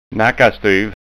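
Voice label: "nakkastyv", Scanian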